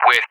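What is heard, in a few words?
"with", English; DCS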